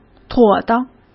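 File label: "妥当", Mandarin Chinese; hsk; chinese-flashcards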